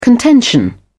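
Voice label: "contention", British English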